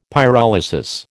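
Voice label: "pyrolysis", American English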